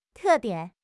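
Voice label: "te4 dian3", Mandarin Chinese; HSK4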